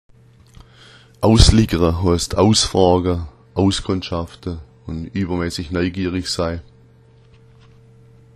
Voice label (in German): "ausliggera", schwaebisch